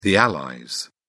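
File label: "allies", British English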